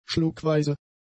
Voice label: "schluckweise", German; adjectives